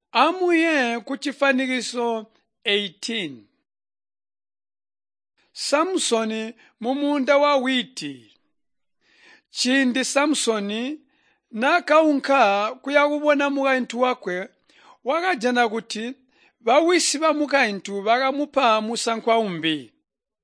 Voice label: tonga plateau, drama; chitonga bsz nt drama